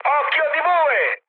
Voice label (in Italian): bomb success, mood high, speech it; War Thunder